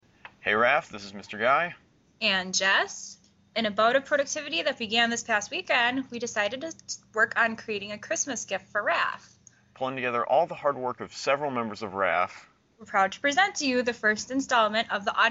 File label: Announcement